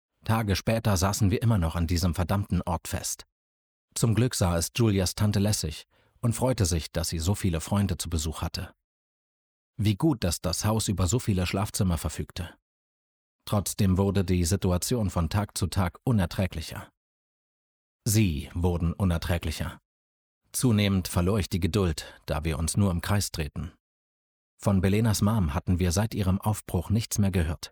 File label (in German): Fantasy, hoerbuchsprecherinnen